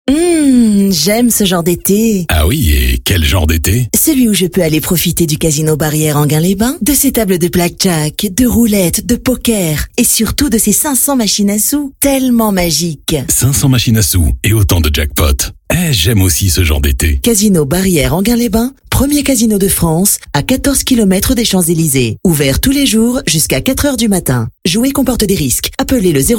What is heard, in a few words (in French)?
Pub Radio Jouée; CASINO BARRIERE ETE